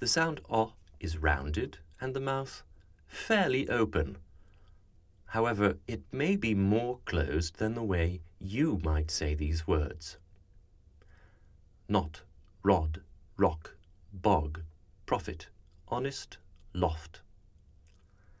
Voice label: Lot Cloth, speech dialects rp